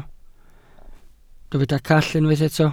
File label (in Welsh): "call", Welsh